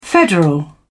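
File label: "federal", British English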